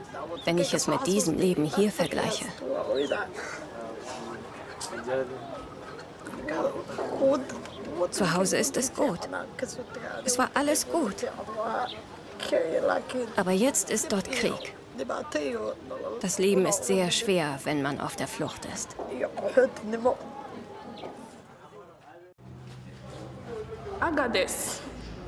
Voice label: Hörprobe Doku; tahrib

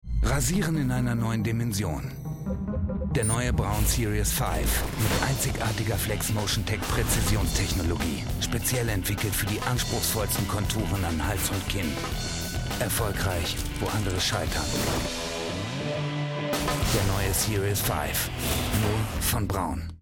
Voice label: werbung; braun

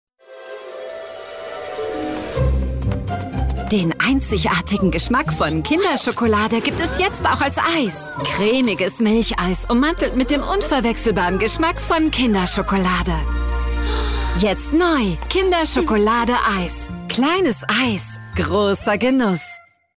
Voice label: werbung tv spot jung animierend; ferrero kinderschokolade eis

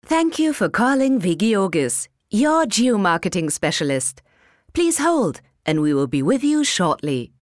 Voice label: anrufbeantworter englisch; wigeogis

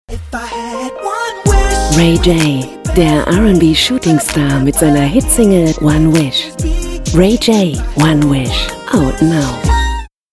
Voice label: werbung musikspot

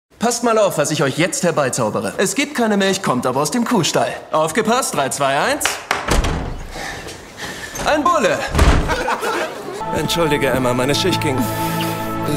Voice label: synchron

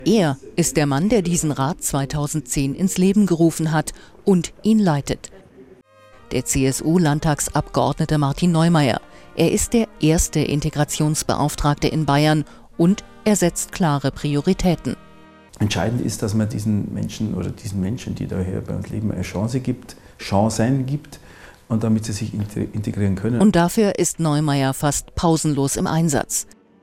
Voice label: Imagefilm

